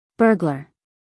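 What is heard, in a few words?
"burglar", American English, female; everyone-can-use-english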